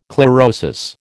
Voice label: "chlorosis", American